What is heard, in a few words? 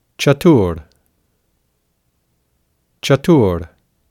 "chatur", sanskrit; Digit